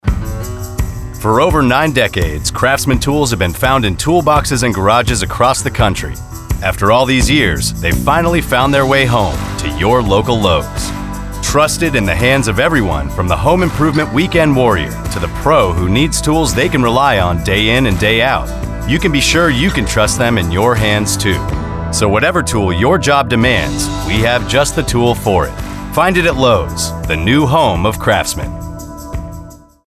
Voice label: Radio; Lowes; Craftsman